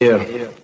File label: "yo", voice